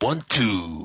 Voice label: "1 2", Voice; numbers and letters